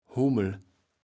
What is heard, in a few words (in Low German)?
"Hummel", kaevels platt